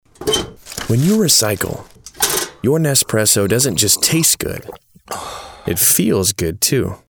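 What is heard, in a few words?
Smooth Conversational, British English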